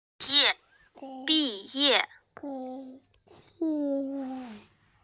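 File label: "bi4ye4", Mandarin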